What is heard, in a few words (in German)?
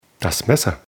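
"messer", German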